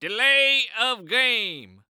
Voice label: Sports Human Voice Male Football Referee; Delay Of Game